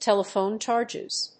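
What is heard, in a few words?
"telephone charges", English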